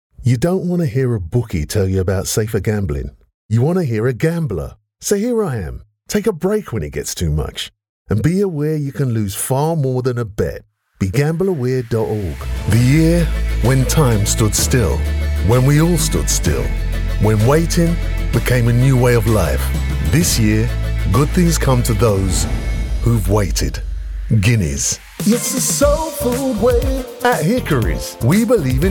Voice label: English Voice Over Corporate Promotion